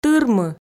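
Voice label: "тырмы", Komi, female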